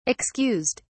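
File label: "excused", British English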